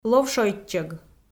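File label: "ловшойччӧг", Komi, female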